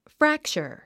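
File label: "fracture", English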